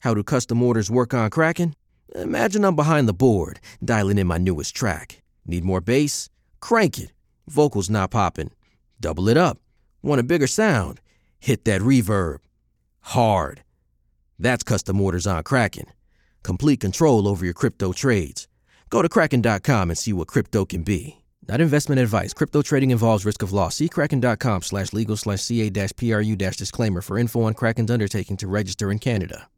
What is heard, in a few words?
Cool, Straightforward, Relatable, Smooth, Confident, Hip; Kraken Crypto